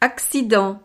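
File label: "accident", French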